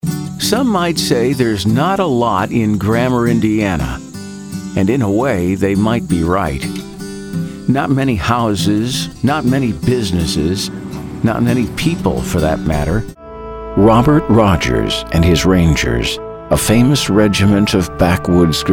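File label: Narration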